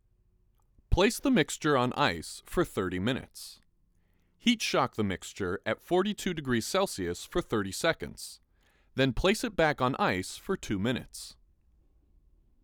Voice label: Voiceover